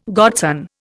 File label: "godson", Indian